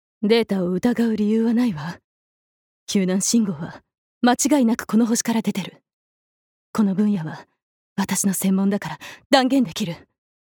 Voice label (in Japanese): 外画